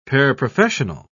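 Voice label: "paraprofessional", British English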